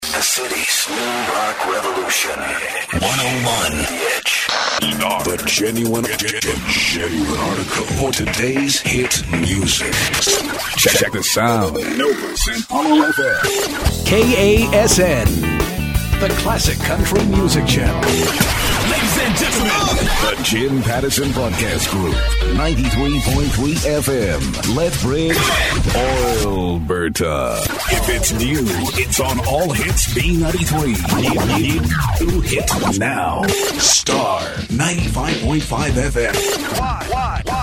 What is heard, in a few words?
Radio Imaging